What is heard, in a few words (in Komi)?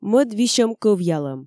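"мӧд висьӧм кӧвъялӧм", Komi, female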